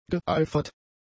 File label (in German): "geeifert", German; adjectives